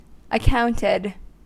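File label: "accounted", US English; pronunciation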